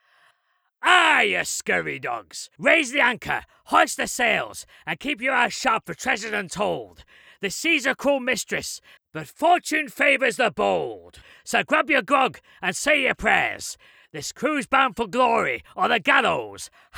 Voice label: pirate